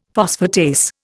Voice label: "phosphatase", Indian; Dictionary

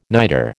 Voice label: "nitre", American

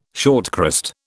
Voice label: "shortcrust", British

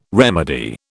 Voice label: "remedy", British

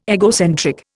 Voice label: "egocentric", Indian

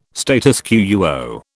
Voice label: "status quo", British

